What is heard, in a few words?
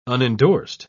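"unendorsed", British English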